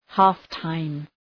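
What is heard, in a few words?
"half-time", English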